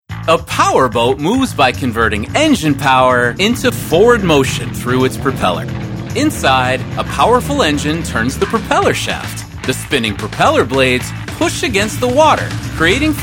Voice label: Narration